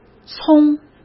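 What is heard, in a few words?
"葱", Mandarin Chinese; hsk; chinese-flashcards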